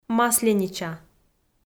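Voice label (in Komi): "масленича", Komi-Zyrian, female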